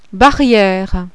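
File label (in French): "BARRIERE", French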